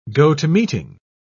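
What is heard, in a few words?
"go-to-meeting", British English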